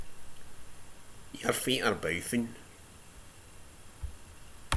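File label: "boufin", slang; Ah huvnae seen ye aboot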